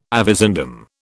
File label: "avizandum", British